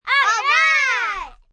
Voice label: Alright Kids, small group of children; Talking; VoiceBox